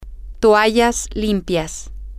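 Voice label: clean towels, spanish, female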